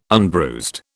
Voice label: "unbruised", British